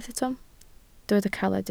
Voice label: "caled", Welsh

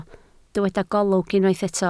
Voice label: "golwg", Welsh

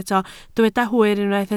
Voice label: "hwyr", Welsh

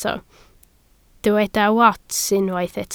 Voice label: "wats", Welsh

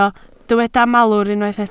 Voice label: "malwr", Welsh

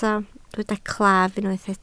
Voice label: "claf", Welsh

